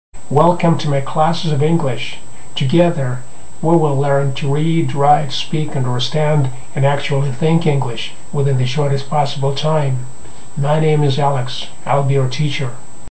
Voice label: Teacher Speaking